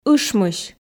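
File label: "ышмысь", Komi, female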